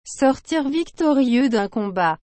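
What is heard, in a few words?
"sortir victorieux dun combat", French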